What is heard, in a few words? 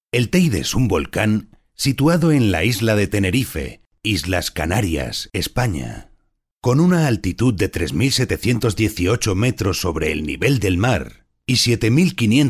Documentaries